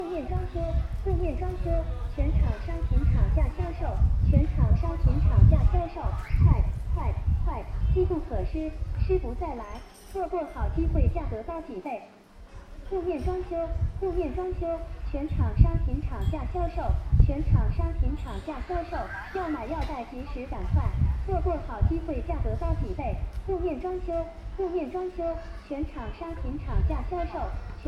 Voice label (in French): pub de rue à Jianshui, Chine